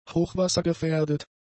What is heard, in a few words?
"hochwassergefährdet", German; adjectives